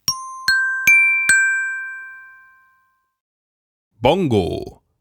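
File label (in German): "Bongo", German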